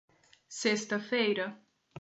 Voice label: "sexta-feira", portuguese